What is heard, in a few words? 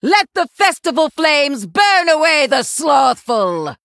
Female Human FIRE FESTIVAL